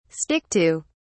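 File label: "stick to", British English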